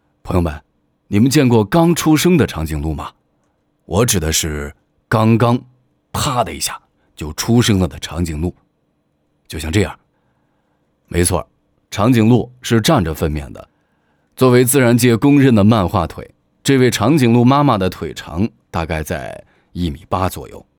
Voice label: 男 专题纪录 长颈鹿

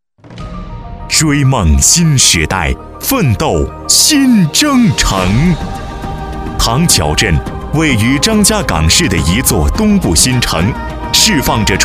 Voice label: 男 政府专题

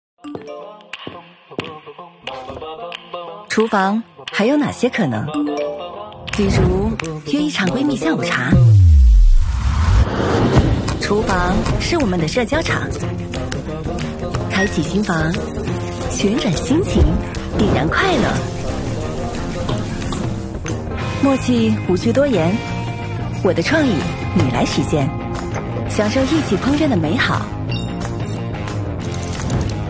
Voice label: 女 专题 时尚 大气; 方太集成烹饪中心

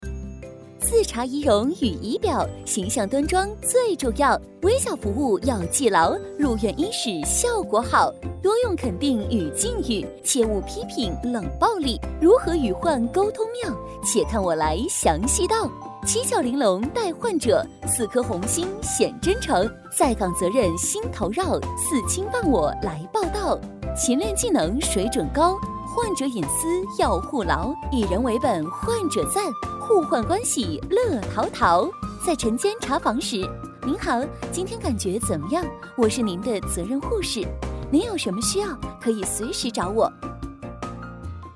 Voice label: 女 飞碟感专题 医疗

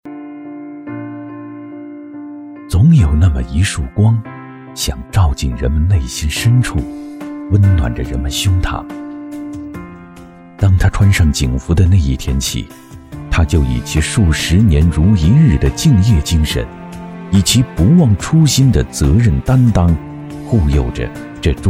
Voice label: 男 宣传片 公安模范

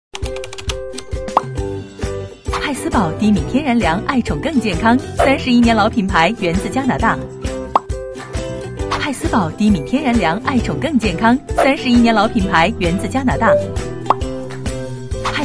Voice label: 女 广告; 派斯宝犬粮

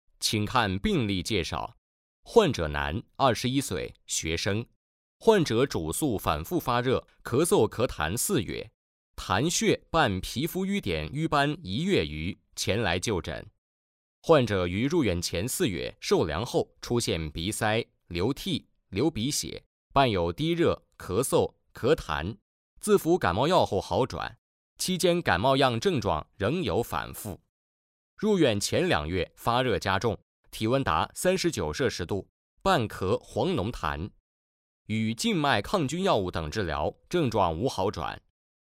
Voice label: 男 课件 病例介绍

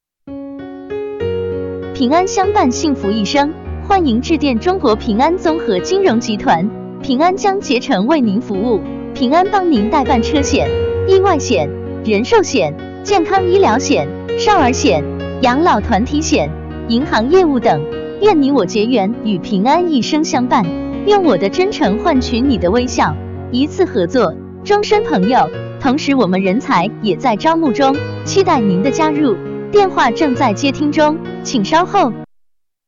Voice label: 女-彩铃; 平安

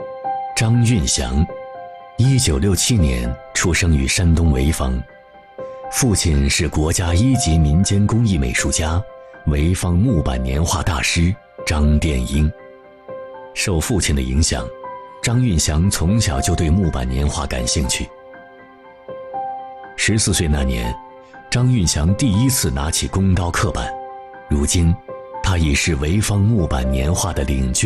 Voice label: 男 纪录片; CCTV-10纪录片; 我们的节日; 2020中国记忆

